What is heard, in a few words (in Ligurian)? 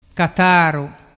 "cataru", dialettu